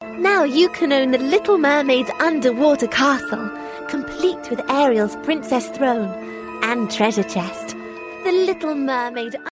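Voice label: English UK advertisement; GoLocalise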